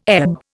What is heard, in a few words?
"ebb", Indian